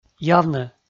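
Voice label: "явнӧ", Komi, male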